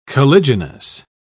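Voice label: "caliginous", British English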